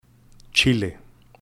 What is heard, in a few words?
Chile, Pronunciation Guide; Matetic